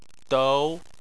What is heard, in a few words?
"dou2", Chinese